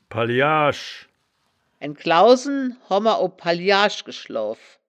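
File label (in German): "pallijasch", Wittlicher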